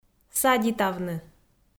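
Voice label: "садитавны", Komi, female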